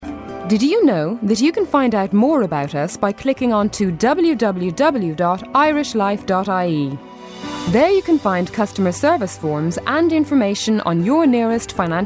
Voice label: on hold